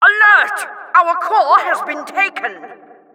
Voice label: Announcer capture flag enemy stolen